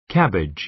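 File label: "cabbage" American English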